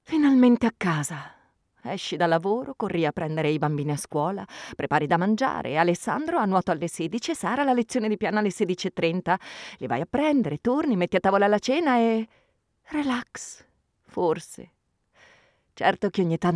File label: italian impersonations